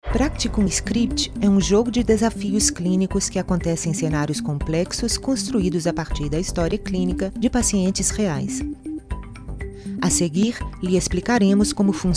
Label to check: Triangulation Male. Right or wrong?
Wrong